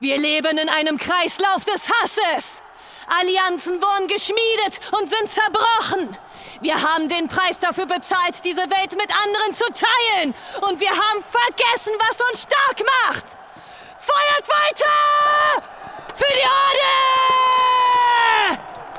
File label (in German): Sprachprobe Kriegerin